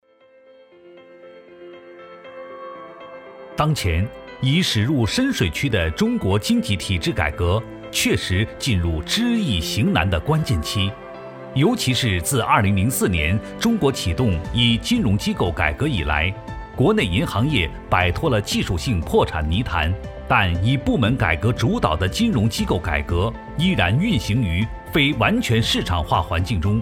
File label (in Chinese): 男 专题 财经类